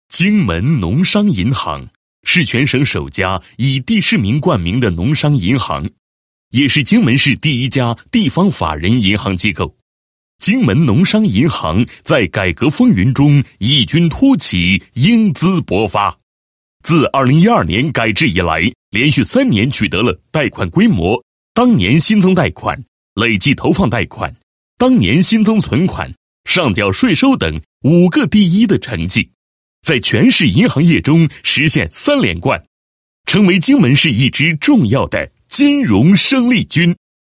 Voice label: 男 拜年广告, 配音; 农商银行拜年宣传

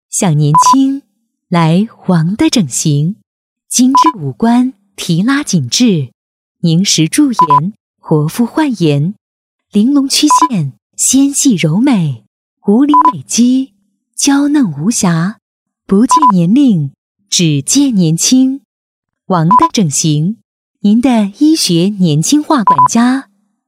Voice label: 女 广告; 王的整形

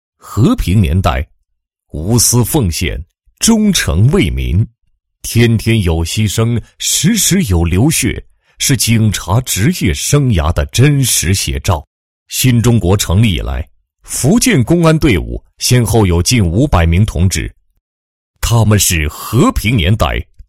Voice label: 男 专题, 人民警察宣传

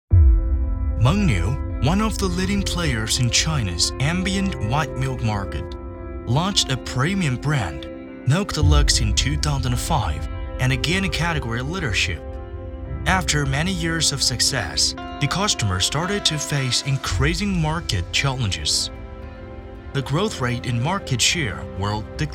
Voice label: 英文广告, 年轻; 蒙牛

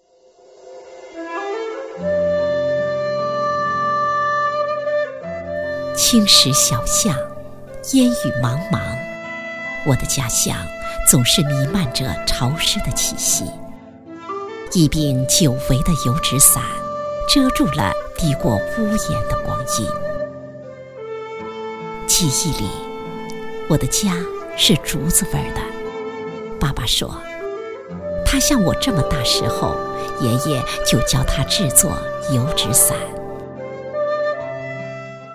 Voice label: 女 专题 城市宣传片; 普通话; 孔雀城

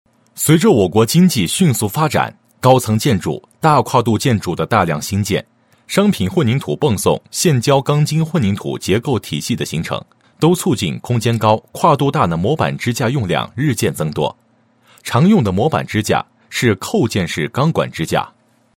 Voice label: 男 解说, 配音; 工具式组合支架施工工法样

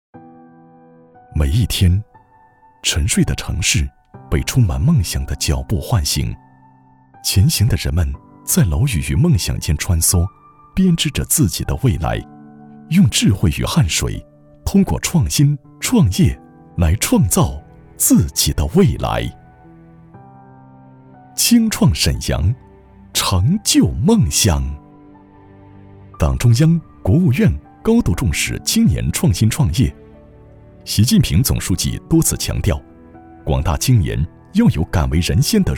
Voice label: 男-正式感宣传片; 沈阳青创

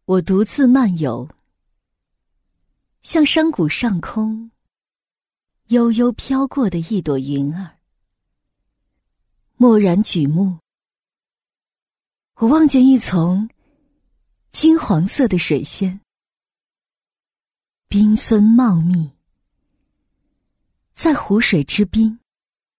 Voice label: 女 深情朗诵

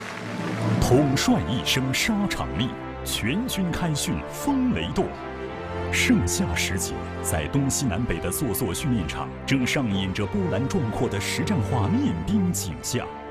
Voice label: 男; CCTV3强军正在播出

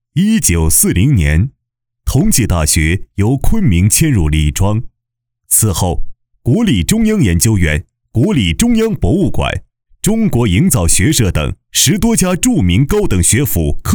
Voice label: 男,纪录片; 李庄

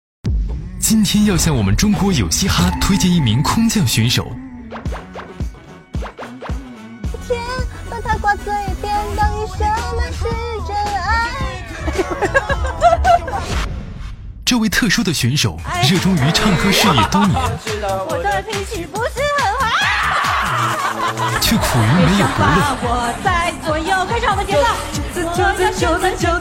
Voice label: 男 栏目导视; 江苏卫视; 我们相爱吧

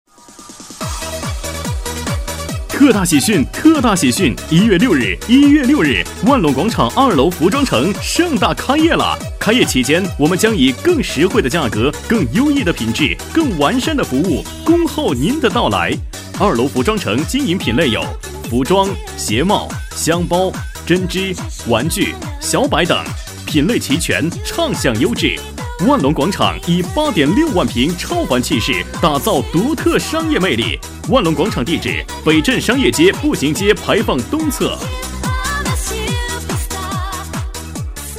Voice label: 男 促销广告 激情 服装城

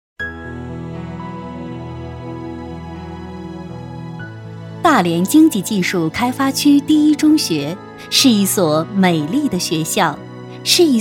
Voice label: 女 专题 大连经济技术开发区

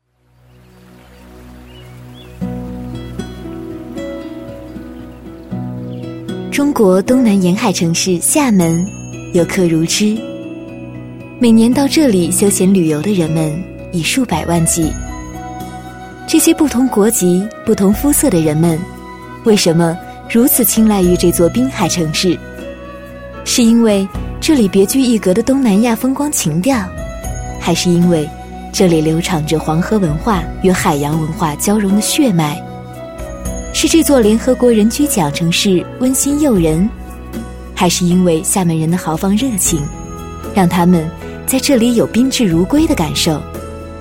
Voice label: 女 专题 宣传片 温柔舒缓 甜美自然; 双语配音; 厦门宣传片

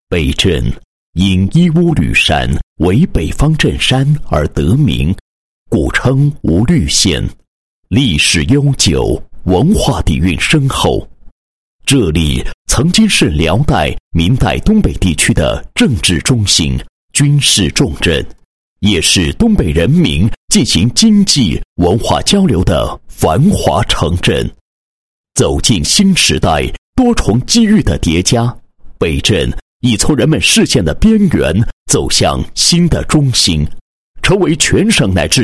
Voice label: 男 形象片宣传; 市监局; 市场监管

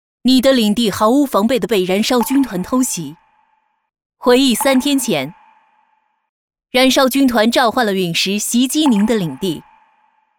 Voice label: 女 游戏; 王者荣耀

